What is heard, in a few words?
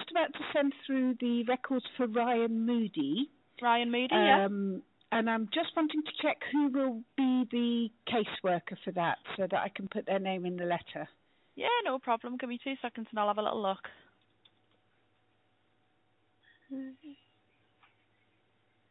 call recording